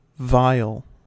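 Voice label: "vial", US English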